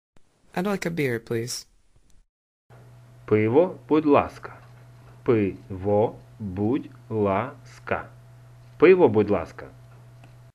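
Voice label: Ukrainian I would like a beer please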